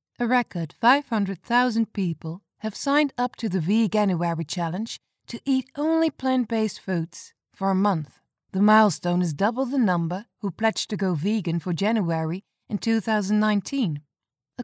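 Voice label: Dutch, female; dutch voice over talent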